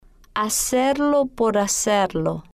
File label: "hacerlo Por Hacerlo", spanish